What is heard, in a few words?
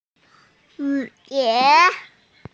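"hu2die2", Mandarin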